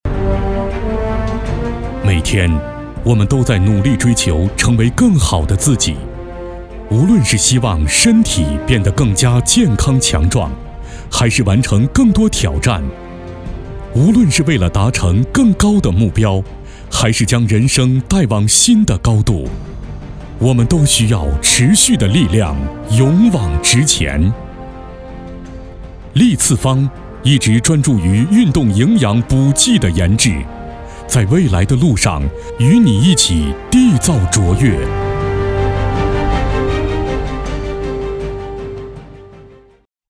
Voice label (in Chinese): 男 厚重深沉 营养宣传片